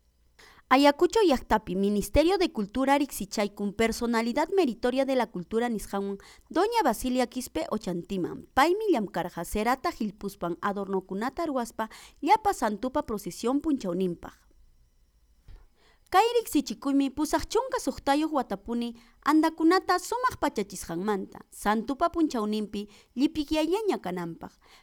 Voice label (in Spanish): VOZ EN OFF, QUECHUA; CERA QILLPUY; ayacucho; QUECHUA CERERIA